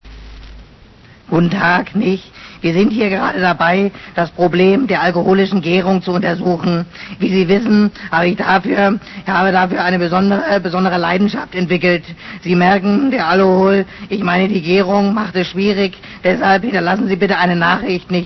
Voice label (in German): anrufbeantworter